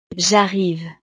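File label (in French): "jarrive", French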